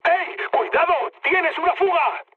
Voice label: damaged water, mood high, speech; War Thunder